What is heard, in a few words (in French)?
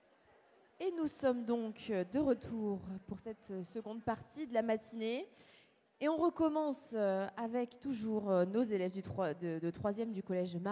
Patois Ados; sexualites adolescentes a l'ere du numerique